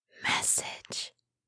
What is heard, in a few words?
asmr girl "message"; ringtone; soft